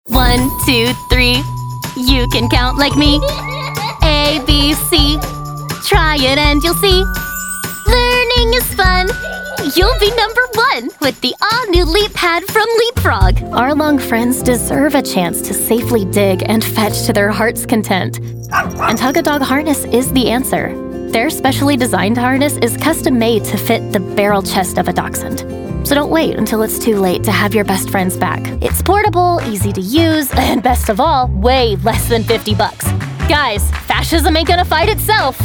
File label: Commercial